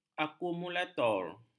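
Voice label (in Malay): "akumulator", Malay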